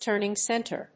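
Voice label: "Turning center", English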